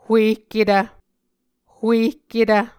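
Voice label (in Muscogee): "huehketv", Muscogee word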